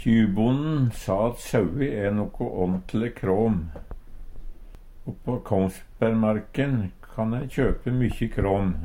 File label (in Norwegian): kram, Numedalsmål